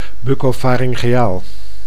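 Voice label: "buccofaryngeaal", Dutch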